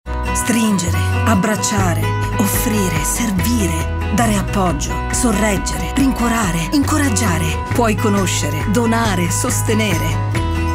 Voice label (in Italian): SPOT; AIRC AZALEA 2025; airc sboccia in oltre 3 900 piazze per la salute delle donne